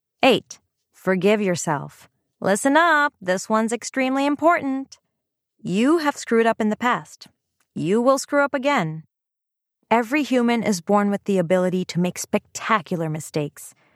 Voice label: Self help, audiobooks; Forgive Yourself